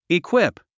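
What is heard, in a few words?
"equip", English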